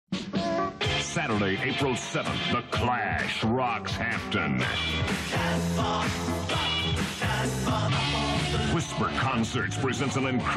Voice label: Radio promo; 1984; 84-04-07 Hampton